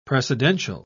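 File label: "precedential", British English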